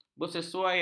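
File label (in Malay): "bersesuaian", Malay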